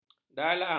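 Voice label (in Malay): "dalang", Malay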